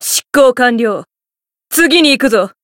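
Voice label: Voice win, Japanese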